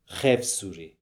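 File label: "Khevsoory", pronunciations; Fourth Way; Beelzebub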